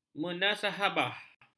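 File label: "menasabah", Malay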